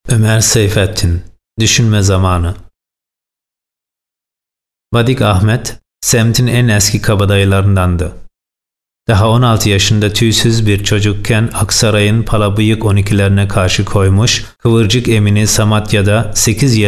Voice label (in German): tuerkisch; kahramanlar